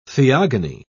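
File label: "theogony", British English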